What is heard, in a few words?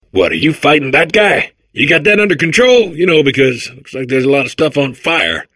babble; portal2